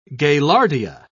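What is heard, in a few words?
"gaillardia", British English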